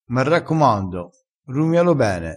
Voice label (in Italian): "rumia", vocabolario dialettale